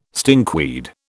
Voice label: "stinkweed", British